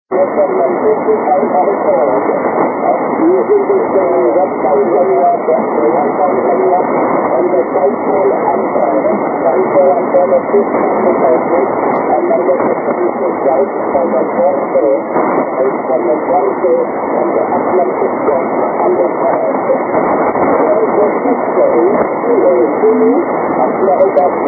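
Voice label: 80m short path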